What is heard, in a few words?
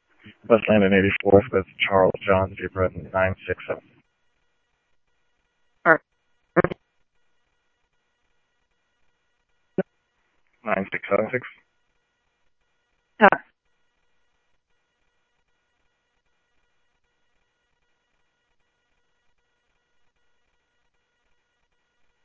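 police radio dispatch, ScottsdalePolice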